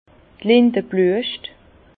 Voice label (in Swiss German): "linde bluescht", Bas Rhin; vin; tilleul